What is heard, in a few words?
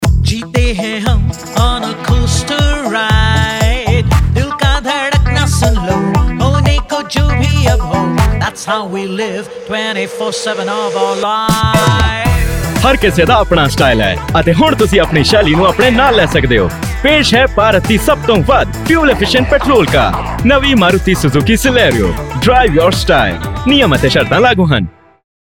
Radio Spot Punjabi; Maruti Suzuki